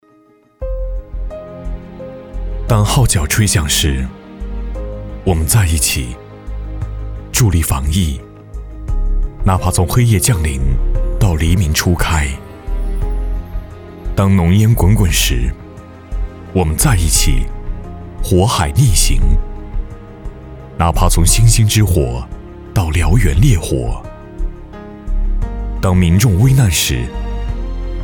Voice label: 男 走心旁白 有感情温暖

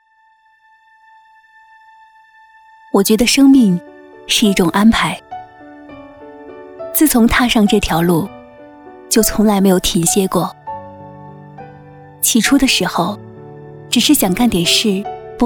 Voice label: 女, 旁白 温柔成熟女性内心独白; 徕络

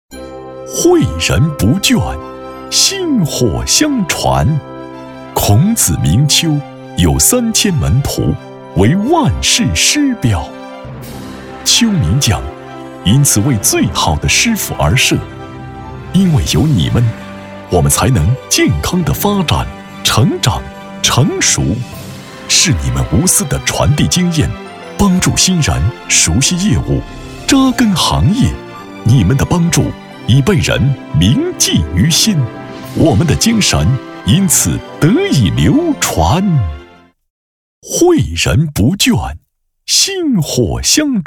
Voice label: 男 颁奖类 大气 激情 厚重; 丘名奖

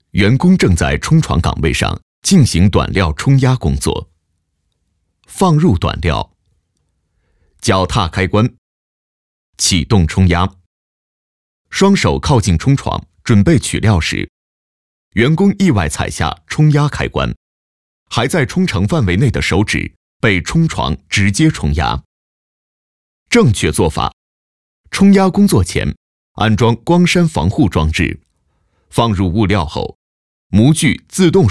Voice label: 男 旁白 安全操作规则

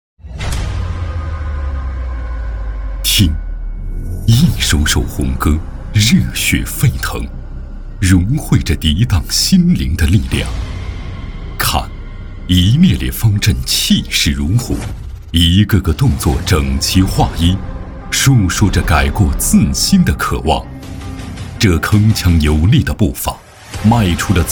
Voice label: 男 专题 军队 片 深沉厚重; 监狱治理

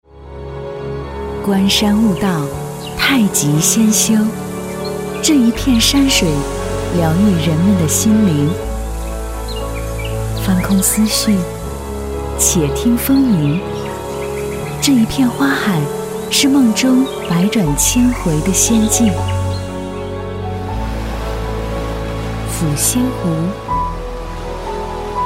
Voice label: 女 景区推介 温柔亲和

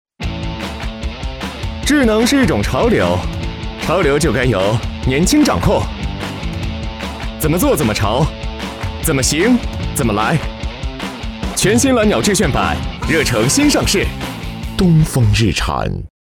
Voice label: 男 广告 年轻时尚; 东风日产

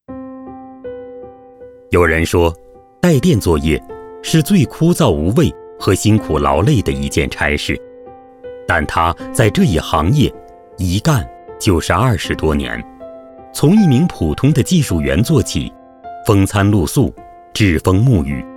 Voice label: 男,人物讲述类专题 不平凡的人生

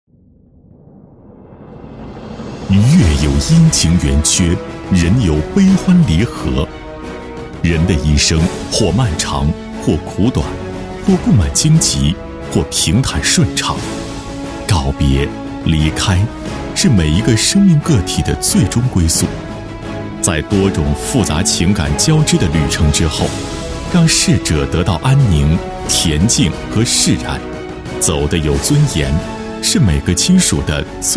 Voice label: 男 宣传片 大气深情; 告别大地福泰安康

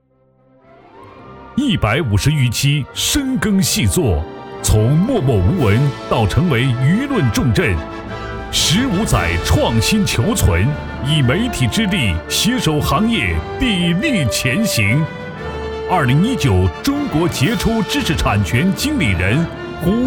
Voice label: 男 颁奖

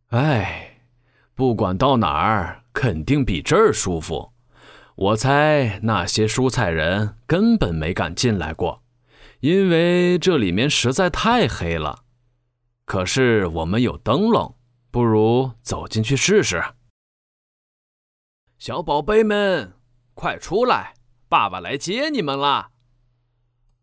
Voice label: 男 角色 中年男子

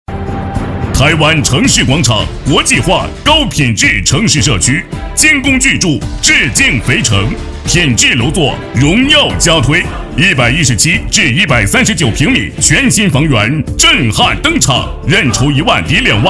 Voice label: 男 地产广告配音

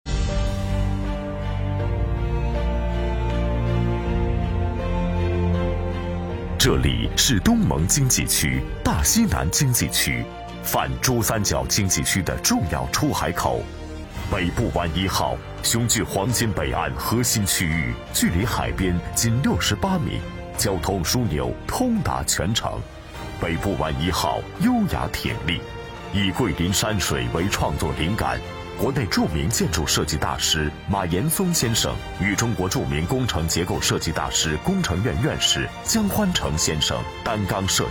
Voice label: 房产广告; 北部湾1号

